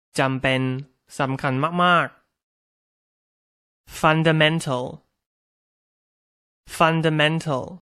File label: "fundamental", English; Science New Vocabulary